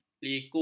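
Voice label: "liku", Malay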